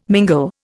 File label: "mingle", Indian